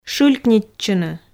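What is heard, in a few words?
"шылькнитчыны", Komi, female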